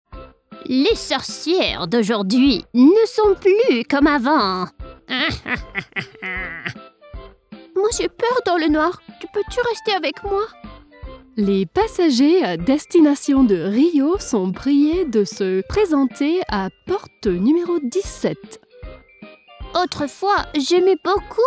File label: French animation